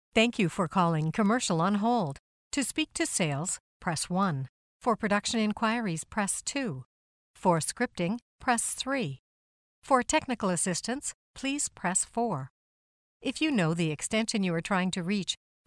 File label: Telephony